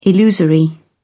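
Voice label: "illusory", British English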